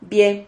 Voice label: "vie", Silabas

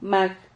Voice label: "mag", Silabas

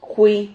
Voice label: "jui", Silabas

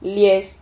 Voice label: "lies", Silabas

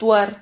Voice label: "tuar", Silabas

